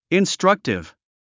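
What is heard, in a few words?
"instructive", English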